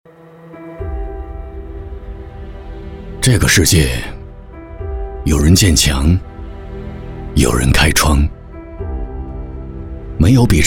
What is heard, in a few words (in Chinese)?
男 广告 旁白 走心 试音; 姿米之光